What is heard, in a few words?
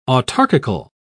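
"autarchical", British English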